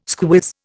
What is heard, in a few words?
"squiz", Indian